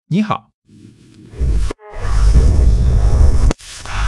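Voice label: "你好", Chinese; HSK1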